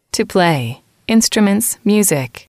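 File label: "to Play Instruments Music", female, english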